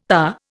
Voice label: "tahr", Indian

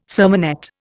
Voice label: "sermonette", Indian

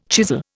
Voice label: "chisel", Indian

